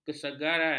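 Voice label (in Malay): "kesegaran", Malay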